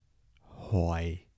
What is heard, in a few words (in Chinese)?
"台" hoi22, 台城, 男